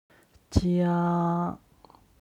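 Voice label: "jia", Chinese; 家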